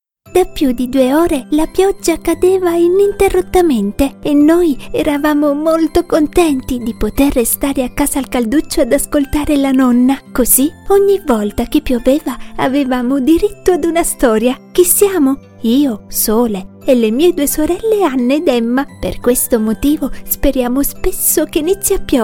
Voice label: Story Telling

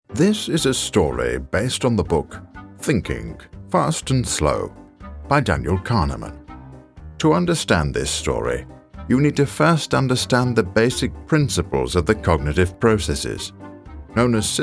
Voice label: Old Storyteller Scientific Narration

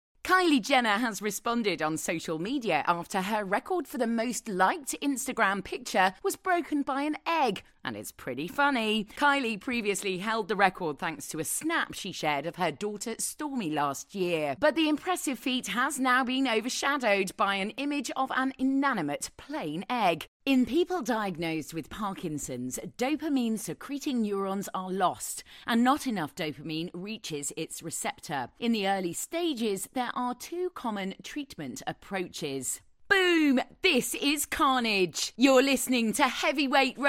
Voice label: VO REEL

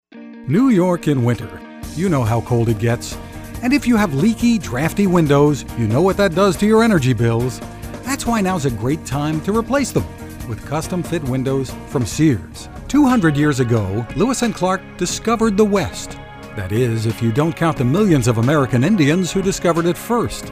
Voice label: Voice Over

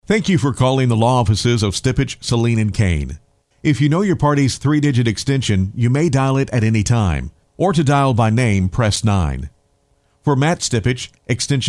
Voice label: Phone System

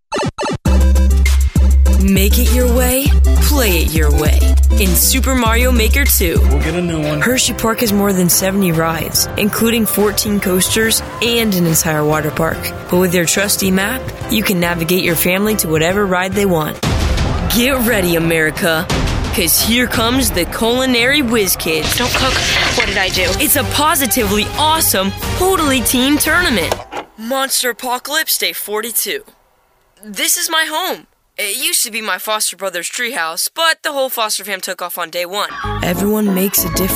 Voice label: VOICE-OVER

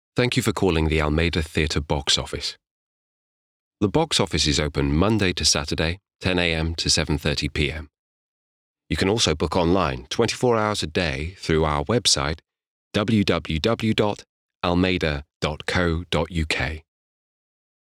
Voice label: ivr

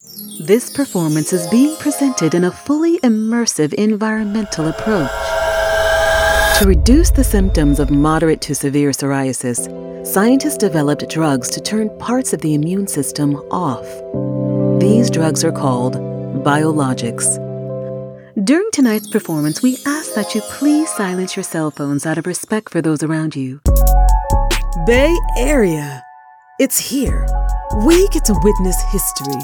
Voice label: Promos commercials IVR

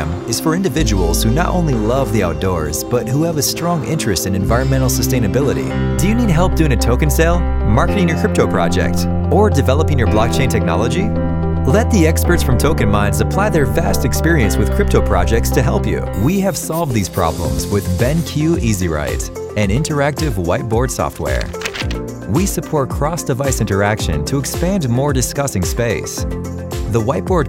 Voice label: Explainer Video American Male

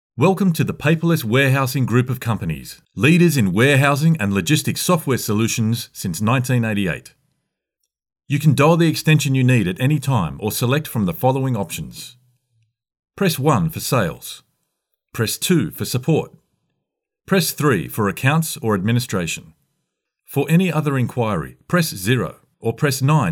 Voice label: On Hold IVR

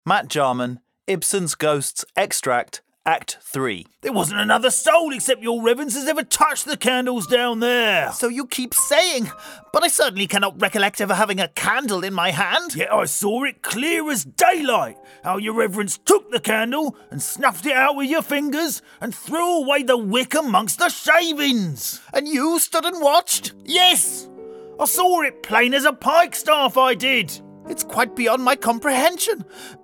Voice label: Acting Reel; Ghosts; Act3

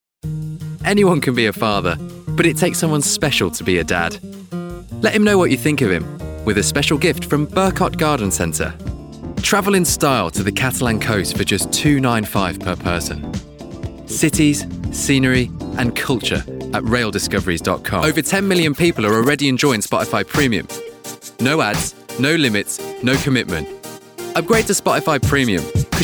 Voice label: commercial VOICEREEL

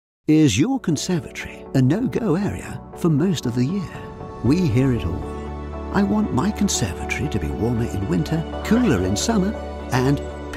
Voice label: TV commercial; CONSERVATORY

